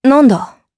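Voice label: Vox Sur Japanese; Seria; kings raid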